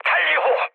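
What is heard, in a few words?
Korean enemy detected mood med, speech; War Thunder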